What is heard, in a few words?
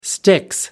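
"sticks", British English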